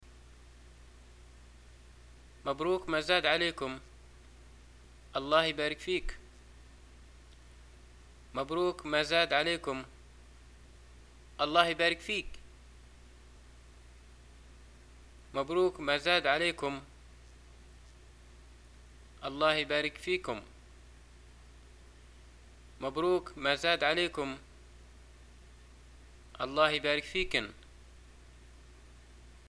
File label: greeting new baby, arabic